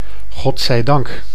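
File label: "god zij dank", Dutch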